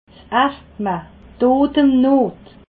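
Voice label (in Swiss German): "asthma", Alsatian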